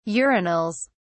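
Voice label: "urinals", British English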